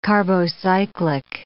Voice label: "carbocyclic", English

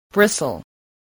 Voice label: "bristle", spelling

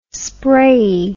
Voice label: "sprayey", English

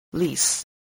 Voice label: "lease", English

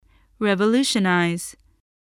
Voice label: "revolutionise", English

